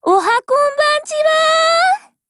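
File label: HELLO Japanese, Voice; GF Alarm; L85A1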